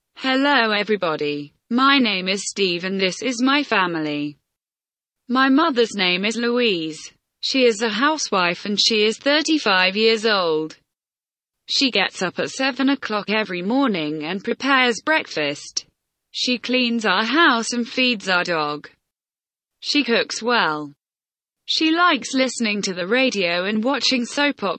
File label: simple present tense reading